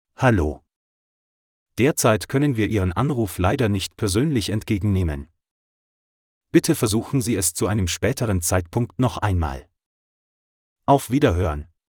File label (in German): innerhalb Hang Up MALE